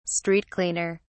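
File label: "street cleaner", English, British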